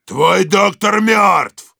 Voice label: Heavy specials, ru

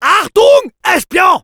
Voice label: cloaked spy, fr; "Achtung ! Espion !"; Robot Medic

